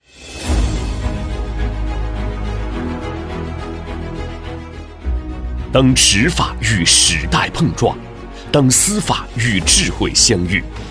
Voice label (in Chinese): 男 公安专题 沉稳大气; 年轻激情; 大气浑厚